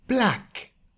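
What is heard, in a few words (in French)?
"noir", French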